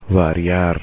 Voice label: "variar", Spanish; infinitive